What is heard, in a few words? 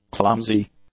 "clumsy", English; adjectives